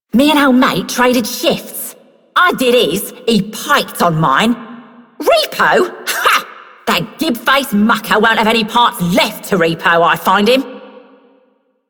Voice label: Female Worker, Female One, en; Dialog; Warframe; Solaris Venus